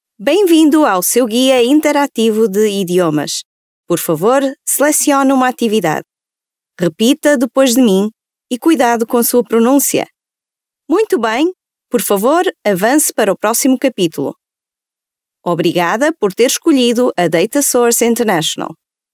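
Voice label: PT female corporate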